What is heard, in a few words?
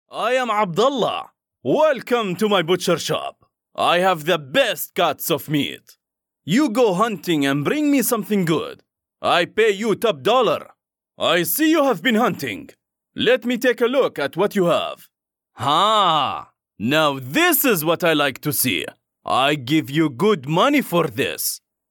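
Arabic English M Video Games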